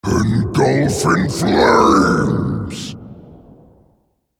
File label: Male Elemental Play